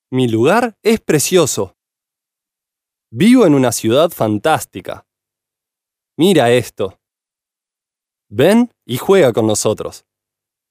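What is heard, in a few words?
Spanish male children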